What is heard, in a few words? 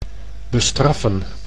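"bestraffen", Dutch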